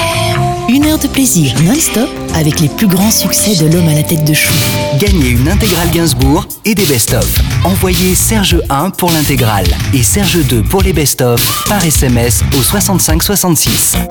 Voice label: french radio imaging, french voice actors